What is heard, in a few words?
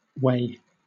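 "whey" English, British; pronunciations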